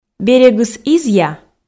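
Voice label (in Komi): "берегыс изъя", Komi, female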